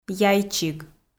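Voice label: "яйчиг", Komi, female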